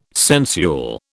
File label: "sensual", British